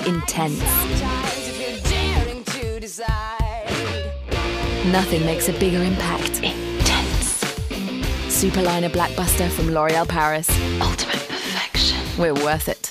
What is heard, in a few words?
bold charismatic; loréal